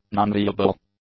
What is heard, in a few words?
"nonviable", english; adjectives